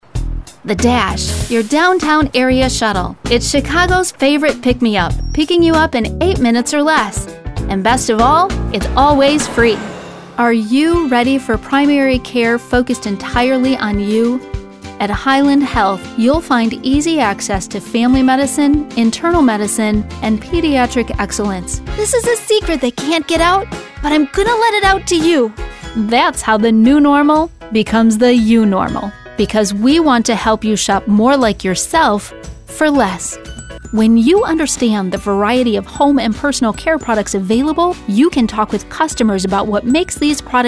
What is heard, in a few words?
commercial, voice over